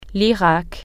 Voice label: iraq, french

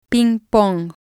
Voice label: ping Pong, spanish

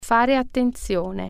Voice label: pay attention, italian

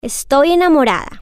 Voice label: i am in love female

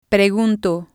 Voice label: "pregunto", spanish

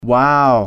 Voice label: "wow", English

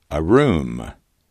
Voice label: "a room", english

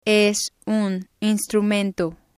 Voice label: "es un instrumento", spanish

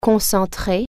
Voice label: "concentrate", French

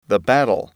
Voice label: "battle", English

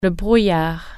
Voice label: fog, french

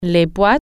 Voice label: cans, french

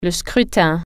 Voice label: "ballot", French

